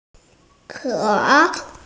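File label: "ke3", Mandarin Chinese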